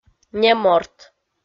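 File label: "неморт", Komi, female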